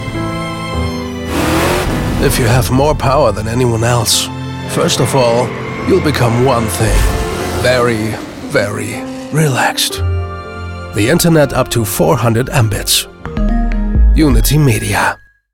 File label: Sample english cool